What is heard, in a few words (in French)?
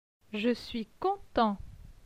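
"Je suis content", French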